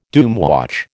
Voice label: "doomwatch", American